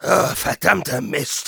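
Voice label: negative vocalization, German; Demoman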